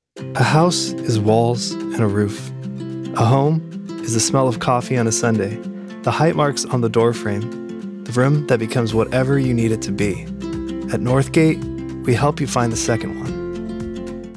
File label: commercial